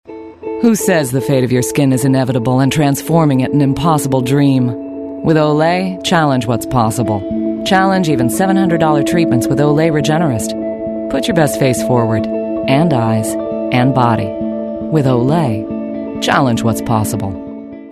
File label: english american voice over female commercial